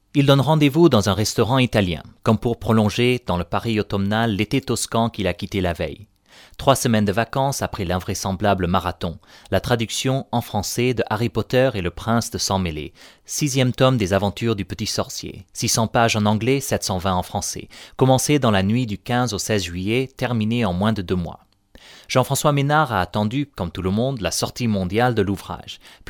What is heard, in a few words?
French Male